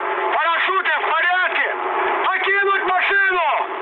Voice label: bailout, mood high, speech ru; War Thunder